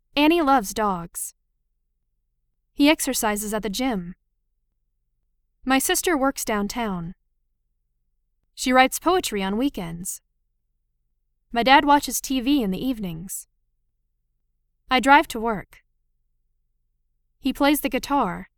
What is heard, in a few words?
Lesson 4 Sentences Natural; chikara eigo jyuku